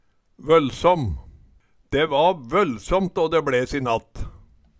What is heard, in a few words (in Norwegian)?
"vollsamm", Numedalsmål; De va vøllsåmt å de bLes i natt